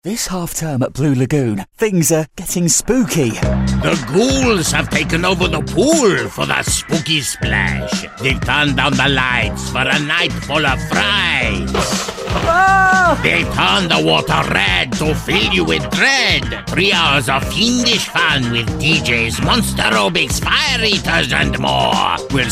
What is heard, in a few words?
radio ad; blue lagoon water park